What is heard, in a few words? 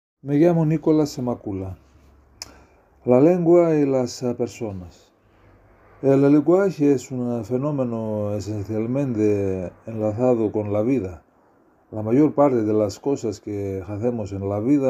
spanish